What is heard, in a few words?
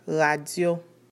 "radio", Haitian Creole